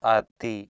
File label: "atti", Sanskrit